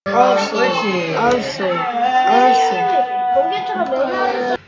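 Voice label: "also", spoken word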